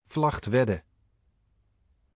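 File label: "Vlagtwedde" Dutch; Влагтведде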